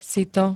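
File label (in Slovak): "sito", Slovak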